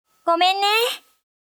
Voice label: Vo Female Gomennee; gekiban